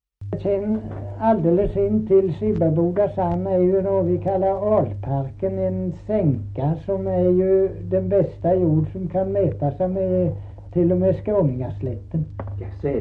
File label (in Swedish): Kristianopel, dialekter; Folkminnen